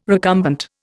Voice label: "recumbent", Indian